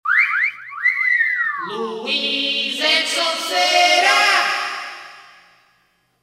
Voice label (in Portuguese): VINHETA; os radiotas